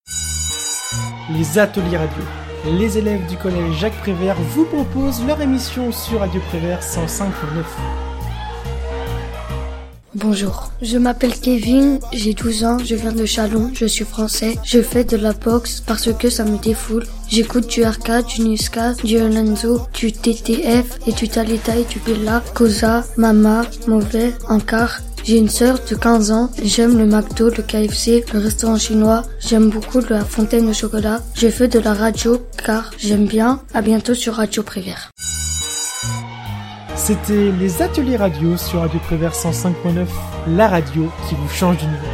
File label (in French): ateliersradio presentation